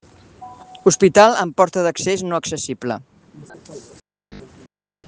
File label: Voice message